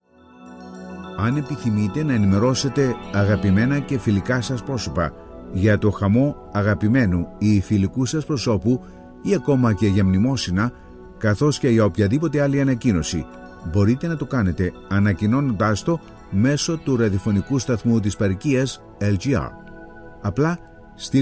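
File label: Greek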